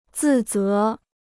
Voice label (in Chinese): "自责", Chinese